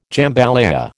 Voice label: "jambalaya", American